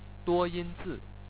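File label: "duoyinzi", Chinese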